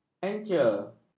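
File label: "encer", Malay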